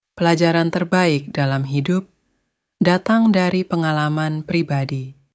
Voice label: indonesian